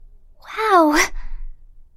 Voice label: wow cute anime voice magical mystic